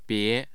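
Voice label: "bie", Mandarin Chinese, tone 2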